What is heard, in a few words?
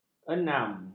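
"enam", Malay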